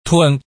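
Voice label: "thun", Hakka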